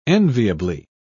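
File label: "enviably", English, British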